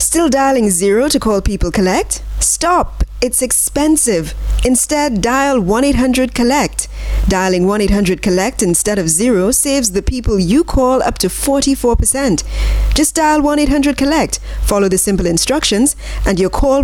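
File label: English Caribbean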